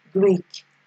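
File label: "greek", Nigerian Pidgin, female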